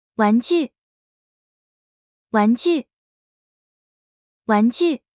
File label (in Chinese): "玩具", Mandarin; Traditional Chinese